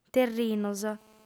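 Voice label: "terrinos", lingua sarda, female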